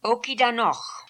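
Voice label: "Okidanokh", pronunciations; Fourth Way; Beelzebub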